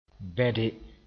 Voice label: "bẽde", moore

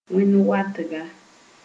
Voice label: "wẽn-watga", Moore

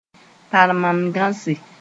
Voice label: "tarmangãse", moore

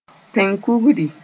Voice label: "tẽng-kugri", Moore; constitution

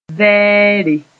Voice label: "vɛɛre", moore

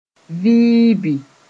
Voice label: "viibi", moore

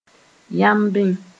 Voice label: "yambẽ", moore

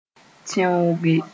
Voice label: "tẽooge", Moore

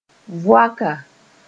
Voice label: "voaka", moore

